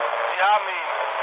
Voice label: aircraft destroyed, mood low, speech ge; War Thunder

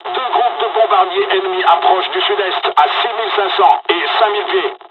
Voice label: dialog, dialogs french; midway; War Thunder

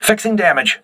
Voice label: voice message repairing, crew ships english

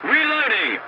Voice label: voice message reloading, english; dialogs; War Thunder

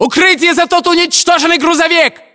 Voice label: order cover truck destroyed, ru

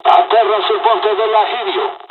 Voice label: land, dialogs italian; War Thunder

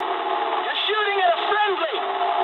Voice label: eng player friendly fire mood low, speech eng

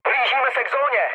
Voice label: near waypoint, speech cze, mood low; War Thunder

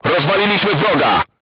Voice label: voice message target destroyed, polish

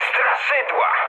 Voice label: enemy detected mood med, speech pol; War Thunder

